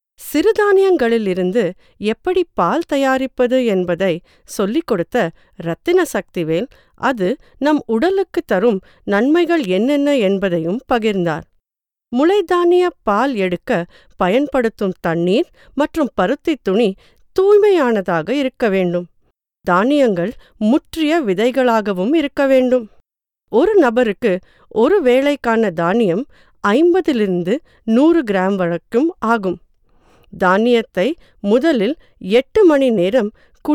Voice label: narration tamil